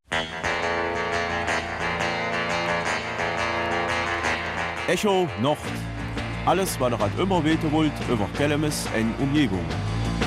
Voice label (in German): Kelmiser Mundart; Dinner und Frühstück in the Dark